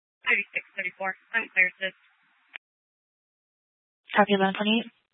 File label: Police 1 Dispatch